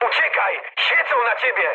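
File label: searchlite mood med, speech Polish; War Thunder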